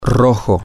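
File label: male, spanish; red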